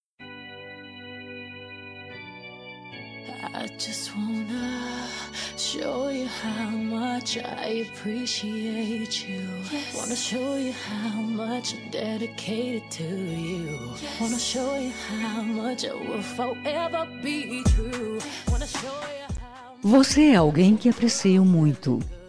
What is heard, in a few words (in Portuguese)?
BOA PROVA FEM; FELICITAÇOES